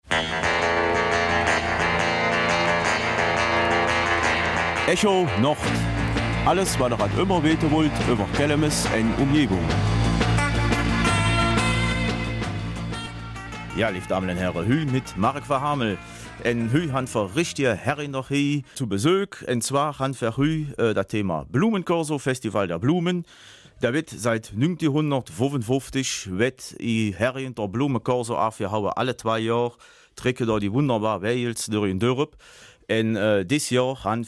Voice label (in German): Kelmiser Mundart; Hergenrath, blühendes Dorf